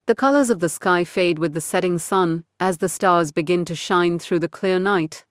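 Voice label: Indian English; iOS Sounds; riya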